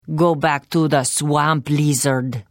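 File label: Hello, Khajiit female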